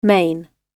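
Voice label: "main", British English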